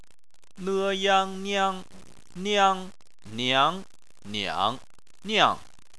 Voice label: "niang", chinese